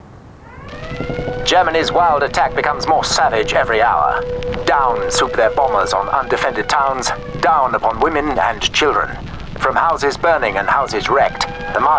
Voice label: Documentary, Dramatic, War, Serious, News Report, RP ('Received Pronunciation'); Pathé